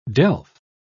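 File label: "delf", British English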